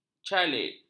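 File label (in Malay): "calit", Malay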